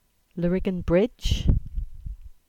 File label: "Lariggan Bridge" EN